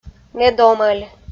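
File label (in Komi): "медомӧль", Komi, female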